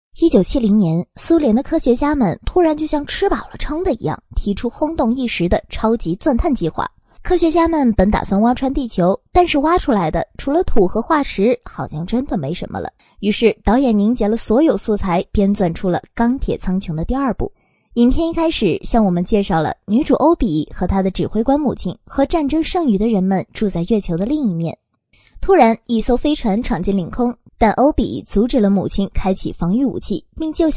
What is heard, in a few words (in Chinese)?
电影解说, 女